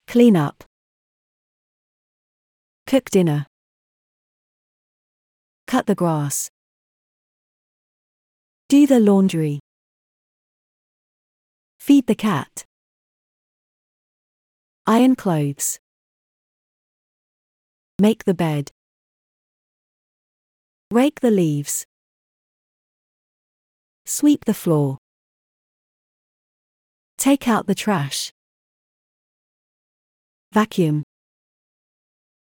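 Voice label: household audio, British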